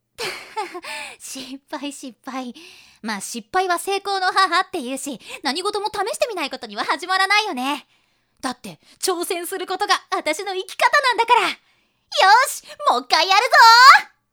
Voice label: woman